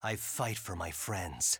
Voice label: win, EN; ike; SSBU